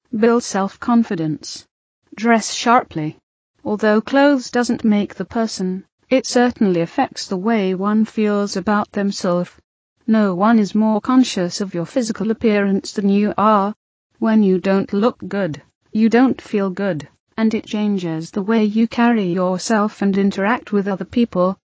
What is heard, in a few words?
English UK Female